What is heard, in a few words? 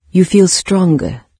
stronger, en-UK, NARRATOR; Fate